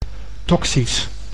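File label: "toxisch", Dutch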